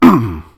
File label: PAIN; DN3D; Duke Nukem 3D